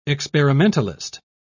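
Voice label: "experimentalist", English, British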